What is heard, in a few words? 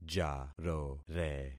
"jarore", concat syllables; Affixing experiment